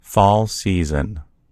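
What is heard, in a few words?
"fall season", American English; gcompris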